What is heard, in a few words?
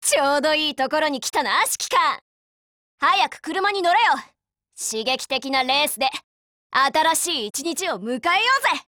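hello, Japanese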